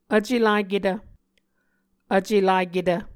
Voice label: "vcelaketv", Muscogee

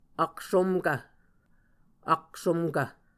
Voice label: "aksomkv", Muscogee

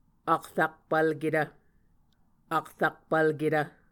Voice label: "akrakpvlketv", Muscogee

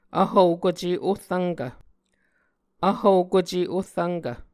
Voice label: "vhvokuce ohrvnkv", Muscogee

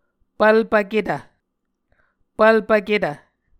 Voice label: "pvlpvketv", Muscogee

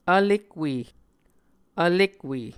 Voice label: "vlekwe", Muscogee

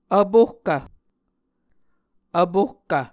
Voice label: "vpohkv", muscogee

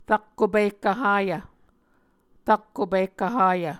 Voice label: "rakko pihkv hayv", Muscogee

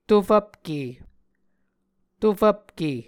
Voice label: "tofvpke", Muscogee

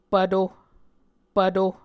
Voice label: "pvto", Muscogee

